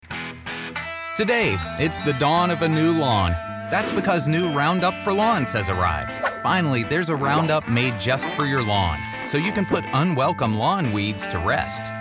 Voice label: men commercial; Conversational and charming. Highly versatile!